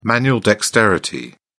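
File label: "manual dexterity", English, British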